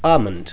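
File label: "almond", New Zealand, male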